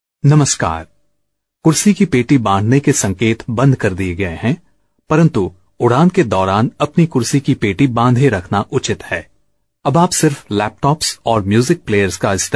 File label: Hindi Male